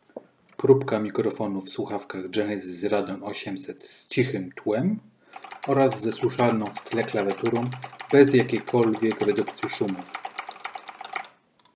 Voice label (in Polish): Probka mikrofonu